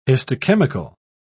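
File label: "histochemical", British English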